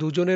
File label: Male